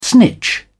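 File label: "snitch", British English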